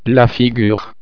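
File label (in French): "figure", French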